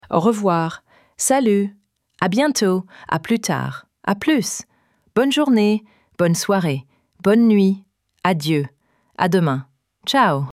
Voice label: goodbye in french